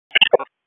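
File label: Fire Department HQ Dispatch, p25; RFD HQ DISP; wake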